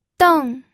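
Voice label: "deng", pinyin, fourth tone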